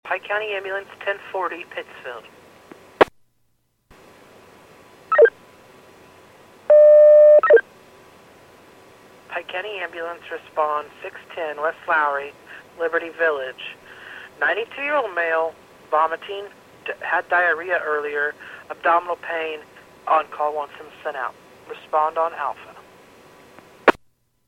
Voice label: PAGING